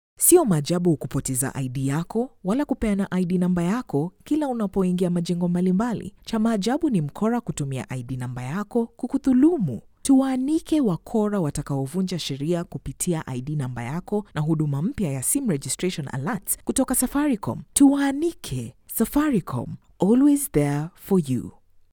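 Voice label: Swahili Female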